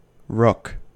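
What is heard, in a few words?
"rook", US English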